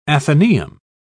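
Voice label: "atheneum", English, uk British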